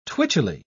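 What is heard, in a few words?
"twitchily", English, British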